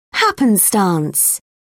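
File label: "happenstance", British English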